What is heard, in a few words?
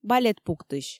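"балет пуктысь" Komi, female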